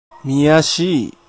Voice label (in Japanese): "miyasii", hougen; みやしい; 「かんたん」、「たやすい」の意。; 方言